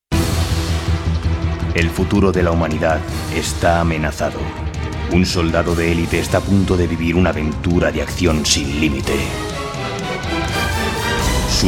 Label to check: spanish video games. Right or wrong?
Right